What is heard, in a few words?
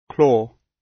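"claw" British; Flora and fauna, agriculture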